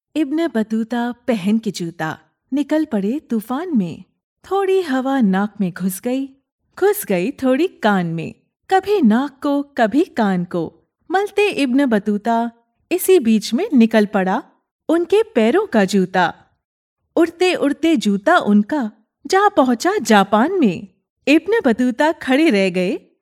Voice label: narration hindi

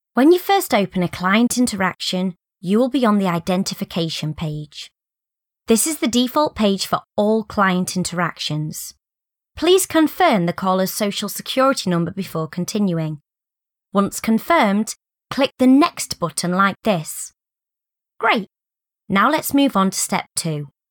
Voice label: elearn

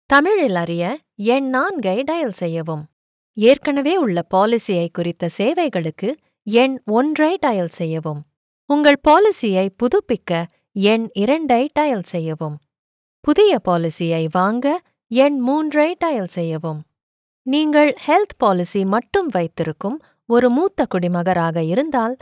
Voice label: IVR Tamil

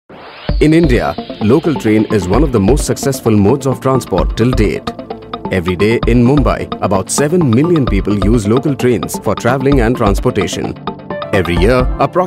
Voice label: Western Railways Explainer English

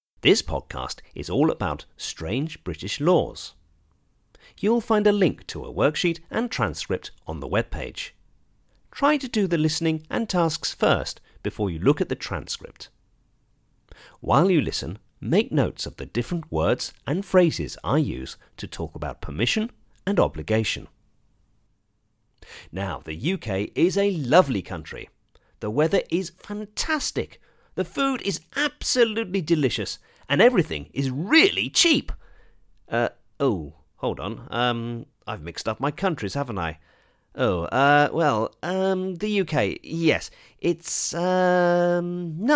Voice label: narration eng

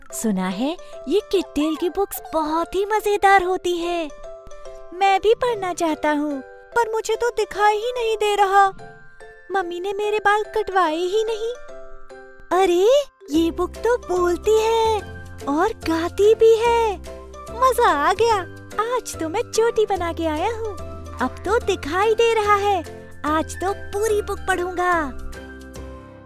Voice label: Cartoon Kid Hindi

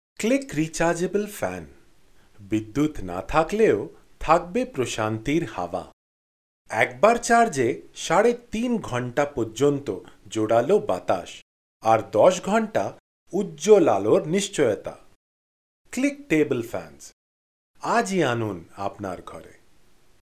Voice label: ADVERT BENGALI

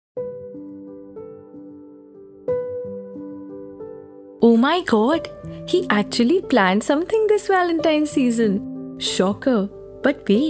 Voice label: Eng; Manubhai Jewellers

